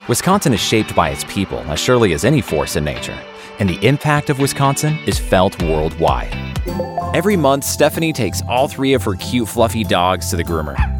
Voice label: men corporate